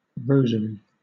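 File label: "rosary", British English; pronunciations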